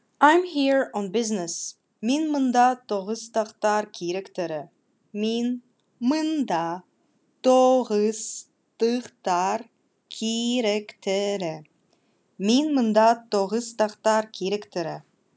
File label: Khakas I am here on business